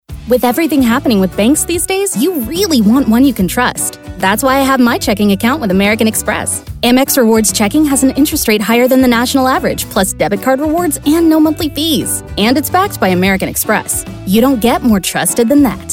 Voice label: Commercial